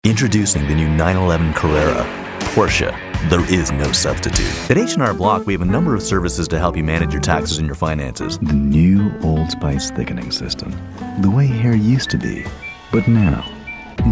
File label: Commercial